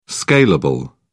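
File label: "scalable", British English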